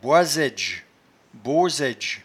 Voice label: "boisaidge", patois jura

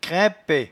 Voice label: "craimpet", patois jura

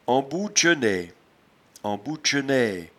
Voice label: "embouetchene", patois jura

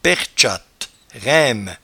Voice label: "pertchatte", patois jura

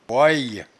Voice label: "boiye", patois jura

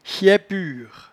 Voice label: "chaipure", patois jura

